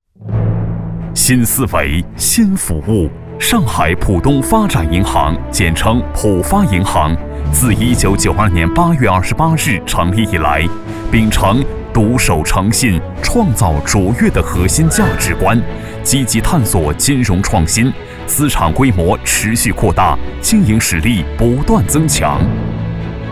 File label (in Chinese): 男 专题 大气解说; 上海浦发银行